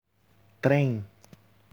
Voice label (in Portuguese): trem, brazil